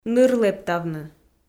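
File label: "ныр лэптавны", Komi, female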